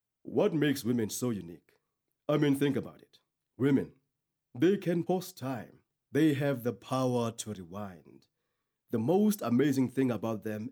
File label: English Conversational